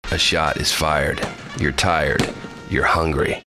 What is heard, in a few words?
deadpan